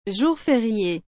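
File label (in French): "jour férié", French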